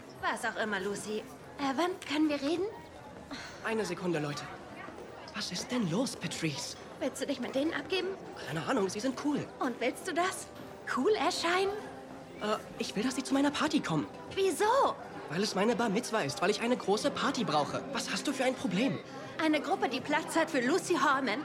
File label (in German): Synchron